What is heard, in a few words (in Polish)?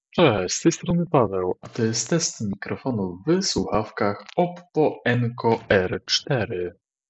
oppo enco air4 cicho